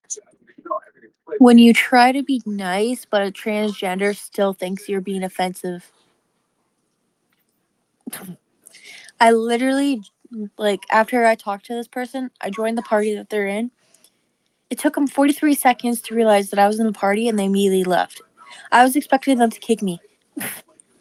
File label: voice message